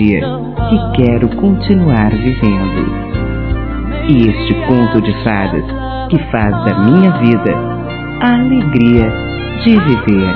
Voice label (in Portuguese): Romântica Você Feminino; Dia Dos namorados; Telemensagens 2017